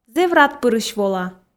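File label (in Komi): зэв радпырысь вола, Komi, female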